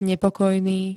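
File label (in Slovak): "nepokojný", Slovak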